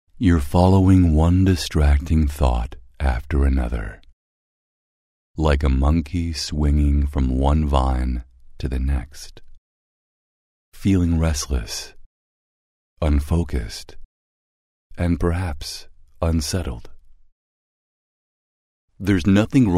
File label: Relaxing